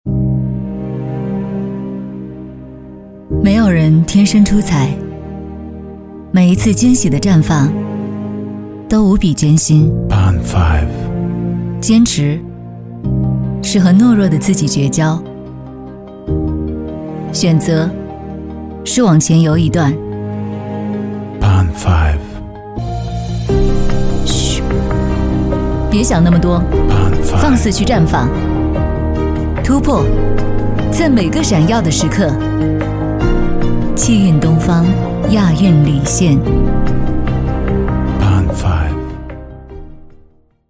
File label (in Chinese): 女 品牌短片; 气蕴东方亚运礼献; 毛戈平X花泳